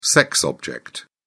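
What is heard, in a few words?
"sex object", British English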